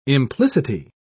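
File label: "implicity", British English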